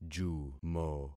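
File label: "jumo", concatenated syllables; Affixing experiment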